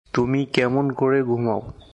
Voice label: Bengali, Bangladeshi Accent; How did you sleep?; Tumi kamon kore ghumao?